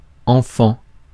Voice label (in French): "enfant", Mots francais